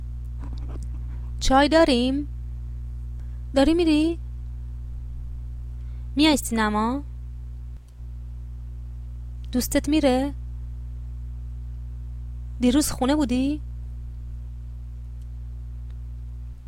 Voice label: pronunciation, Persian